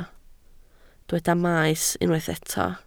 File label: "maes", Welsh